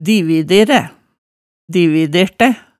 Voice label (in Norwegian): "divideræ, dicidertæ", hemsedalsmaalet tale